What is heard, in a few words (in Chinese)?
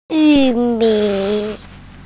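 "yu4mi3", Mandarin Chinese, female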